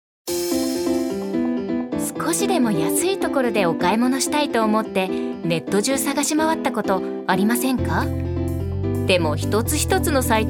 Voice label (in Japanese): ナレーション